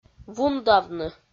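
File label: "вундавны", Komi, female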